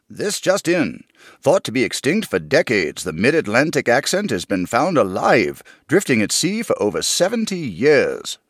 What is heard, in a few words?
mid-atlantic accent audition